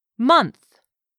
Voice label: "month", English; 3000 words